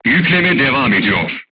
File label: voice message reloading, crew tanks turkish